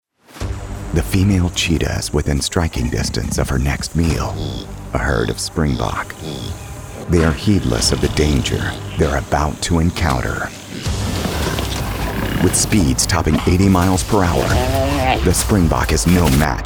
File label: Documentary In Show Narration